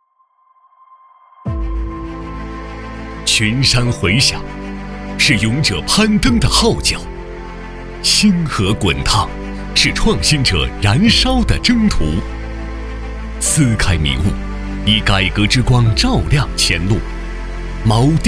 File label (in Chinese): 男349 宣传片; 电科院创新